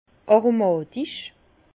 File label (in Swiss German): "aromatisch", Bas Rhin; vin; aromatique